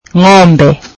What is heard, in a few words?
swahili cows